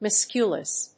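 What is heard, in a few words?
"musculus", English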